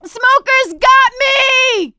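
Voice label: choke, male; survivor; producer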